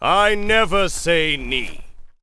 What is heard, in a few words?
Knight Angry; warcraft3